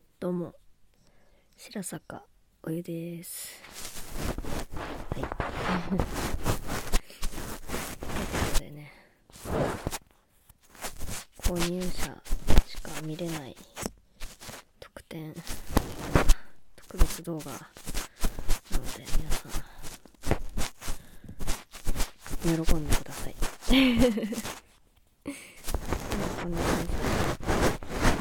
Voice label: 素の声でフリートーク＆耳かき

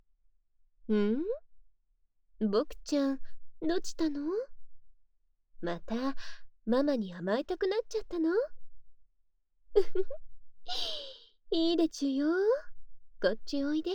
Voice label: 癒らしママ（右耳ver）, ASMR

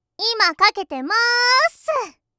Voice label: 発信音 「今かけてまーす」; システム音声; skype用

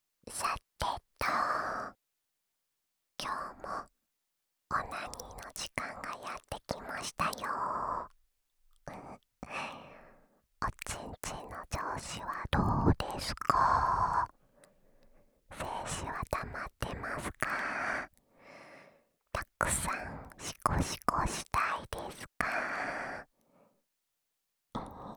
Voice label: シコシコボイスループ R; オナサポ用

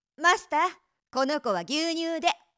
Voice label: マスター、この子は牛乳で！, 飲みに行くOL

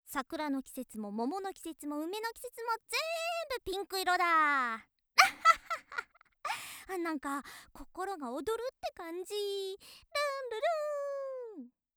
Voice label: 元気JK 春だね

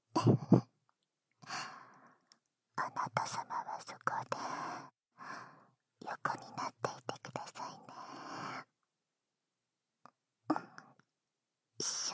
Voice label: お試し焦らし挿入（バイノーラルマイク 効果音あり大きめ）, ASMR

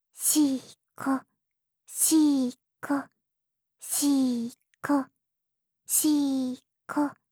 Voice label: シコシコ オンリー ゆっくり; オナナビ4 ～ゆかりのオナニーナビゲーション～

